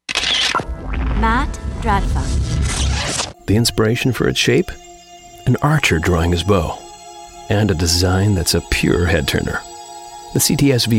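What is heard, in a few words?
American English commercial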